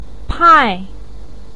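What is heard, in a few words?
"pai", Mandarin pinyin, tone 4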